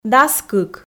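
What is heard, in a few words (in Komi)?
"дас кык", Komi, female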